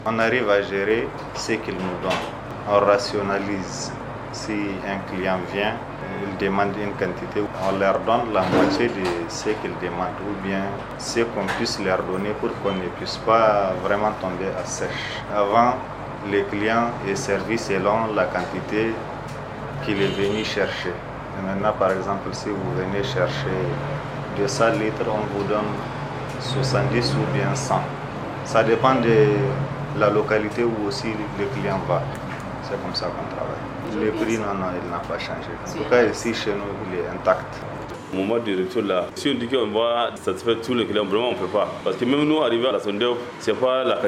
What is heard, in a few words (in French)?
VOX POP; FR; STATIONS SERVICE ZINDER ZARA